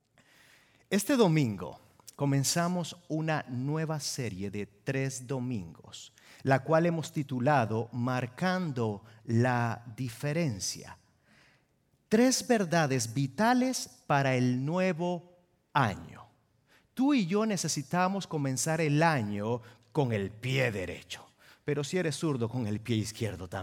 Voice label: SERMON SPA